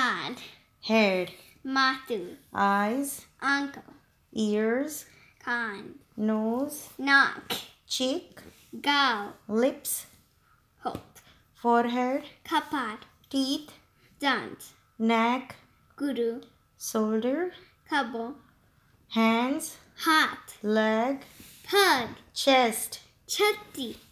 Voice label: Parts of Body in Gujarati Spoken